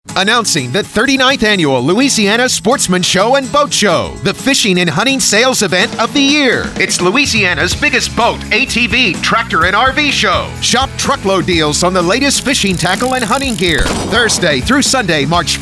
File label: radio spot